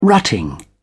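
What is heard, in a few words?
"rutting", British English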